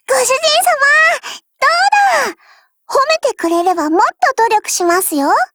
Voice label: WIN JP